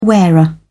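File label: "wearer", British English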